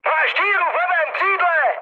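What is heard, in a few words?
left wing, Czech, mood high; War Thunder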